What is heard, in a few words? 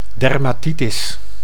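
"dermatitis", Dutch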